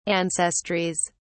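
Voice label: "ancestries", English, British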